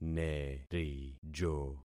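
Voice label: "nerijo", concatenated syllables; Affixing experiment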